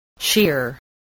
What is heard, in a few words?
"sheer", voice spelling